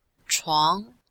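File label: "chuang2", Chinese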